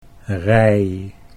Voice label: "rij", Dutch